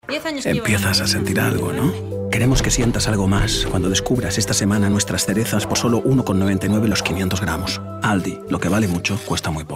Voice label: male spanish store comercial; ideasonora

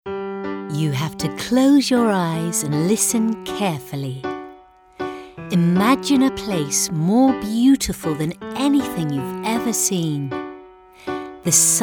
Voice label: female british english narrative comercial; ideasonora

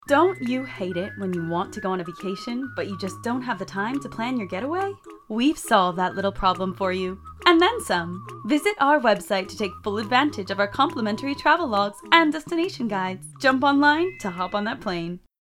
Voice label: female american english vacation comercial; ideasonora

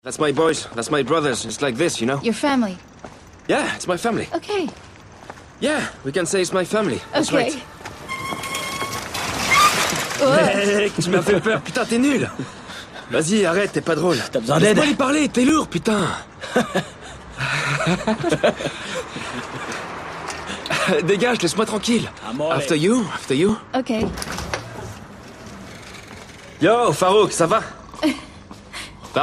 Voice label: male non-native english dubbing comercial

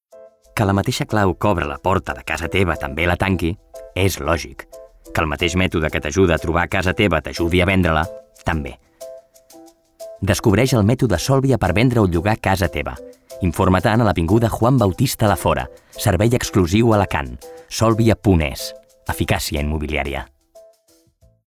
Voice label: male catalan house comercial; ideasonora

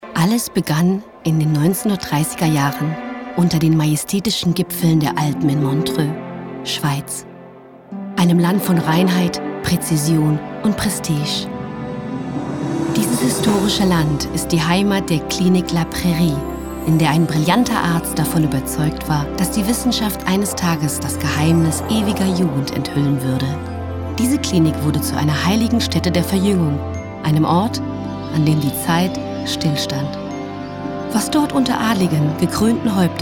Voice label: female german car comercial; ideasonora

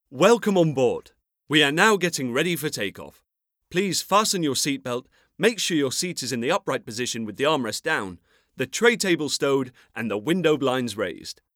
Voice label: male british english plane comercial; ideasonora

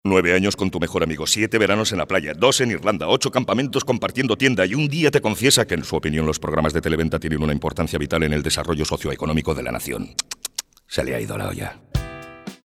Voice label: male spanish phone comercial; ideasonora

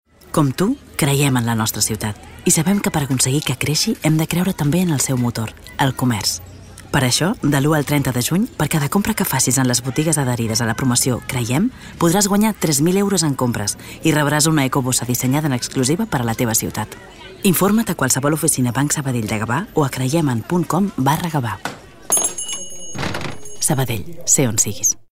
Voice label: female catalan bank comercial

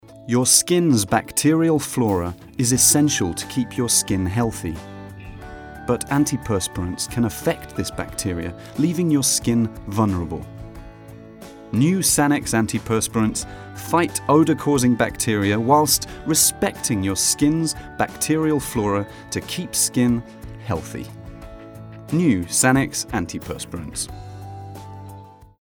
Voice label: male british english skin comercial; ideasonora

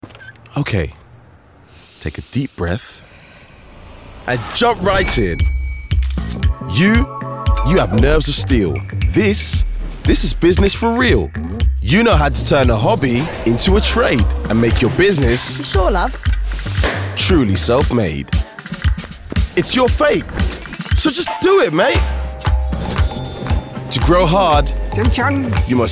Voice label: male german comercial; ideasonora

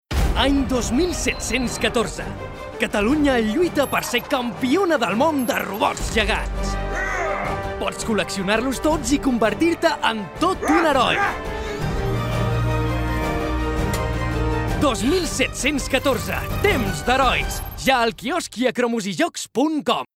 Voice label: male catalan promo comercial

